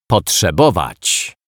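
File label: "potrzebowac", Polish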